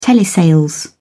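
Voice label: "telesales", British English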